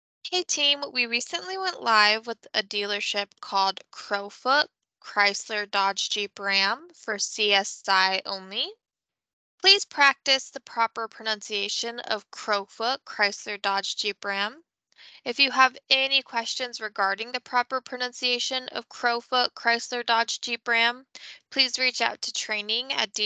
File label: Crowfoot, pronunciation; Crowfoot CDJR